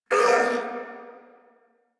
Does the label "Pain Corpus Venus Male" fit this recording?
Yes